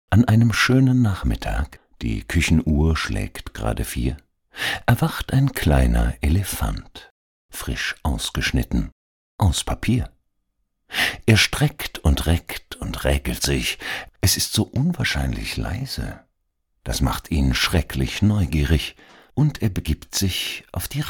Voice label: Hoerbuch Kinder Elefant, Fuer die Kleinsten

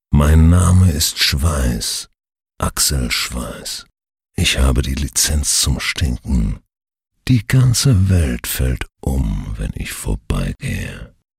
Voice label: FUN WERBUNG Deo; Axel Schweiss

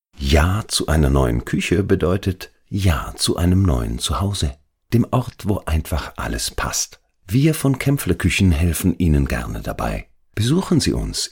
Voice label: Werbung Kuechen; Kempfle

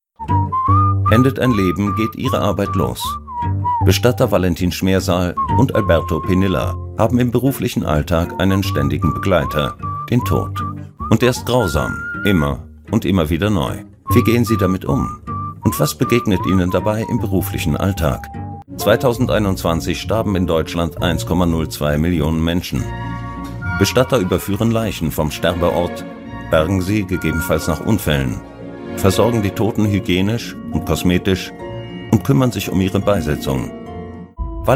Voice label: Doku Bestattung; WDR

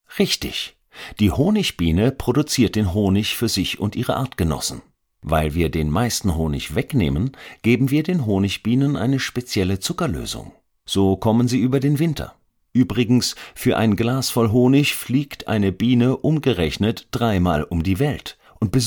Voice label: Doku Kinder Bienen

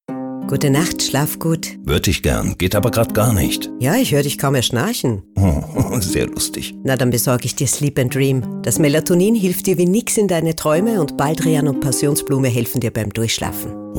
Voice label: Werbung Spot Sleep and Dream Abend

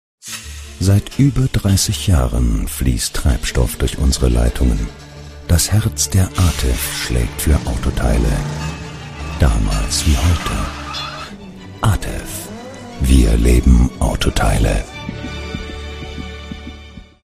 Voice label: Werbung; Atev

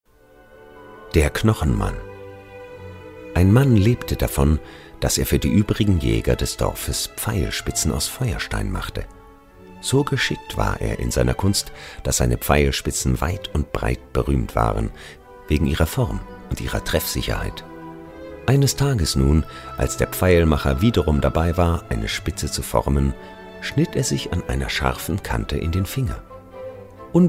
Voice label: Hoerbuch Maerchen mit Musik

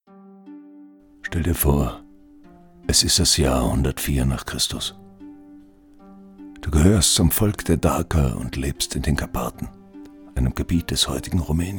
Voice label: Hoerspiel Gladiator History dramatisch

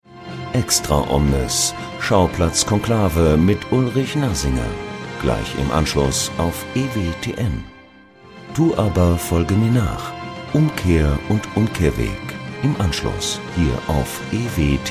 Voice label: Stationvoice kirchlich

